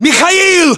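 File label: "mikhail", battlechatter, Russian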